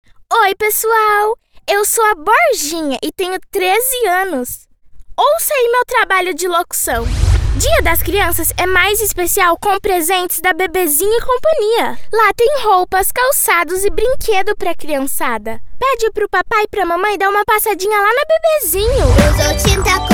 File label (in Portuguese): INFANTIL - VOZ